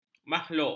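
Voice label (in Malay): "makhluk", Malay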